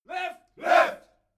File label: military shout english "left"